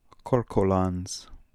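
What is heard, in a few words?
"Korkolans", pronunciations; Fourth Way; Beelzebub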